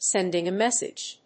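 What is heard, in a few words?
"sending a message", English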